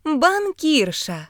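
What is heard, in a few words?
banker female, Ukrainian; gcompris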